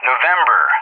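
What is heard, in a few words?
"November", English; JTAC; Alphabet; DCS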